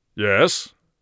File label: yes command, mage voice; Mage Voice Pack